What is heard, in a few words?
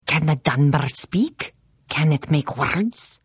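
Hello, Khajiit female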